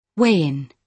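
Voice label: "weigh in", British English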